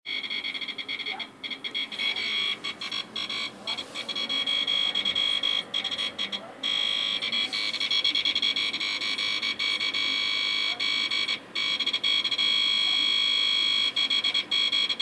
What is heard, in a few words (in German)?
Sprachmemo